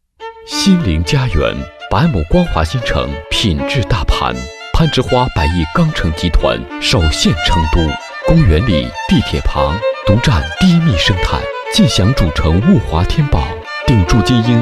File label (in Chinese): 男 地产广告, 国语男声配音; 心灵家园